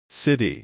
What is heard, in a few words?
"city", English